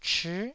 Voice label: "chii9", Taiwanese

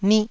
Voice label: "nih4", Taiwanese

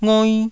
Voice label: "ngoi1", taiwanese syllables

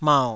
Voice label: "mau3", Taiwanese syllable

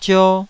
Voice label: "zio2", Taiwanese syllable

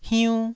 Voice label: "hiun1", taiwanese

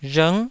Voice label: "rhng9", taiwanese

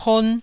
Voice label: "pon1", taiwanese

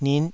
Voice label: "nit5", taiwanese

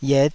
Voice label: "yet5", Taiwanese

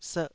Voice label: "serh2", taiwanese syllable

